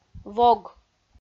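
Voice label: "вог", Komi, female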